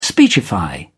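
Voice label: "speechify", English, British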